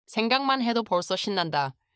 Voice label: joy